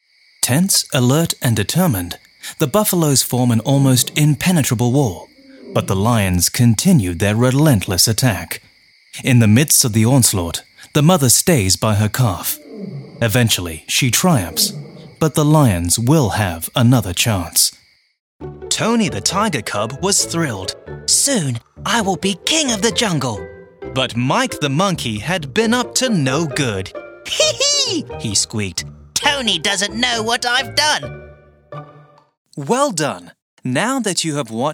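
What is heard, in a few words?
British English, narration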